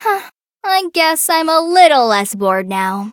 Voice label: level up, en; Cookie Run